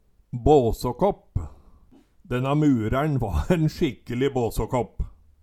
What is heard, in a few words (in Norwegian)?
basakapp, Numedalsmål; Ein som er lite varsam. Går på utan plan i det ein tek seg til.